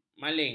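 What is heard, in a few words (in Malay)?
"maling", Malay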